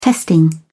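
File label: "testing", English, British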